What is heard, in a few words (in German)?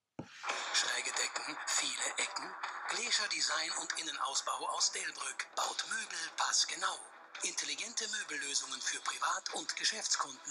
radiospot